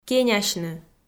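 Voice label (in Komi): "кенясьны", Komi, female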